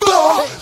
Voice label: "blow", Voice; ooh ahh